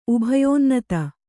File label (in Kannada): "ಉಭಯೋನ್ನತ", Kannada